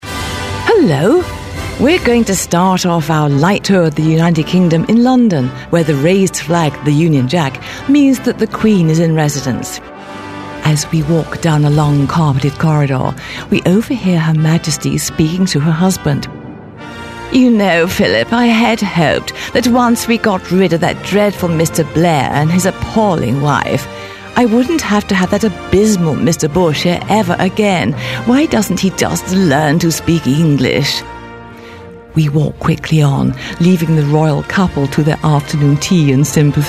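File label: British dialect mix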